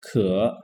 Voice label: "可", Chinese

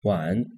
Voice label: "晚", Chinese

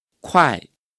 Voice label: "快", Chinese

